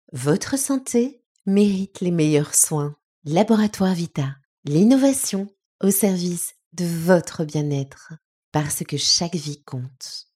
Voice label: Commercial Pharma FR